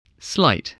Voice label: "slight", voice, spelling